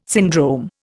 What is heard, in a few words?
"syndrome", Indian